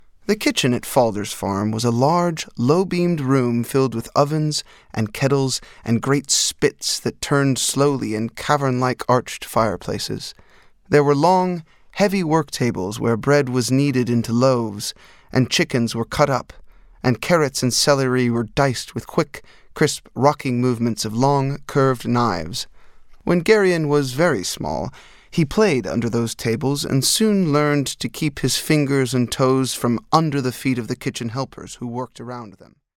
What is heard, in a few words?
AUDIOBOOK VOICE